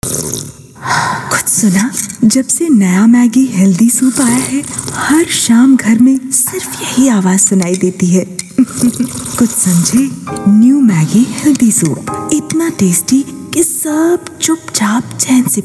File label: Radio Spot Sample